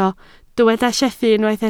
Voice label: "llethu", Welsh